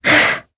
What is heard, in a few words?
Landing, jp; Jane; kingsraid